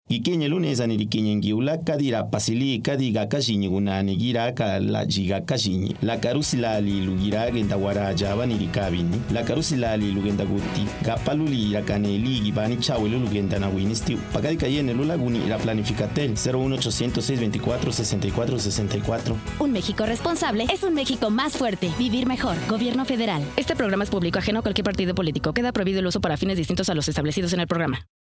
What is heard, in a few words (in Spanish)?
Zapoteco